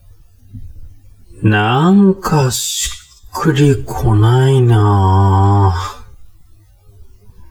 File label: man